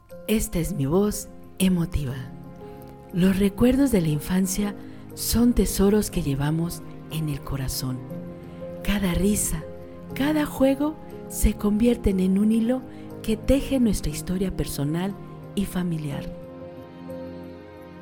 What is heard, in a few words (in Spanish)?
Emotiva Musicalizado; locutora